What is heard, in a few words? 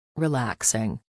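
"relaxing", English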